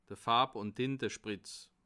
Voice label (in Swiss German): "farb", alsace